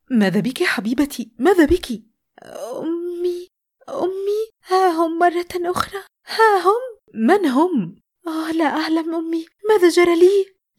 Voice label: Mother Teenage girl Worrie tired